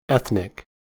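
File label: "ethnic", US English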